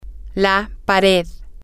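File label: the wall, spanish, female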